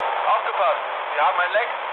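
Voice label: German damaged water mood low, speech; War Thunder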